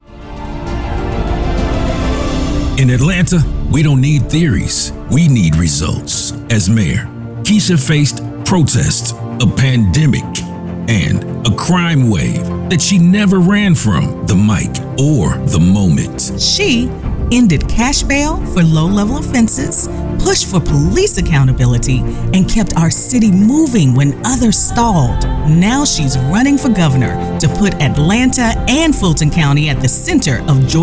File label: Voice Actor